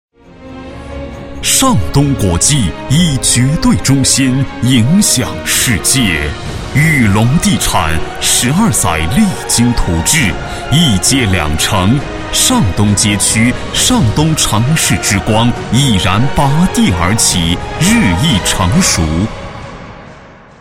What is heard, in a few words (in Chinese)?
男3-房产广告, 国语男声配音; 上东国际房产